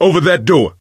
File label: misc directional, coach, survivor voice; source engine; left 4 dead 2